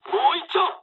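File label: Japanese player killed enemy mood low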